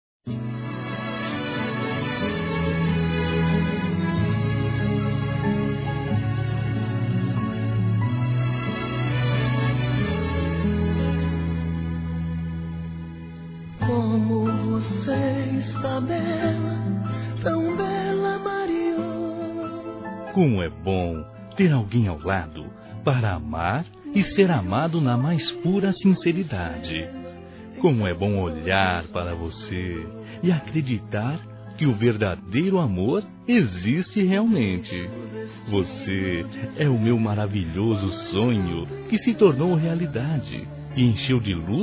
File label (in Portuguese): ROMANTICO, Telemensagens; Telemensagens 2017; Românticas